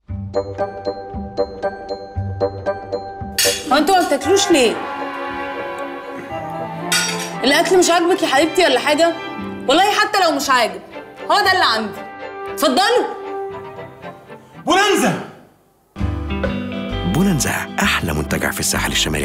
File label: Arabic Radio TV Commercial Voice